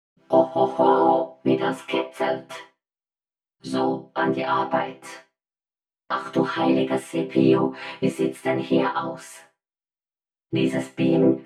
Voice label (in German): Sprueche mit FX; Nibble